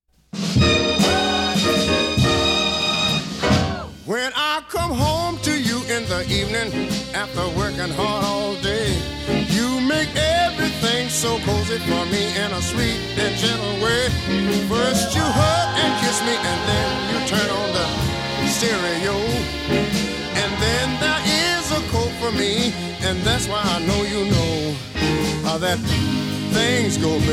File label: Radio Commercials, Radio Spot; 1967